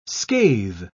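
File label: "scathe", British English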